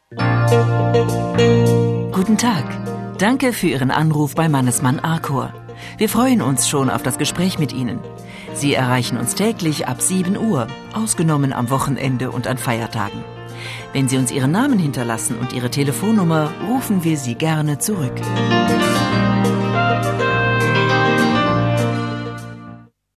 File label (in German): telefonansage